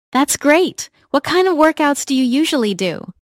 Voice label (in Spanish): dialogo, ingles; work out; phrasal verbs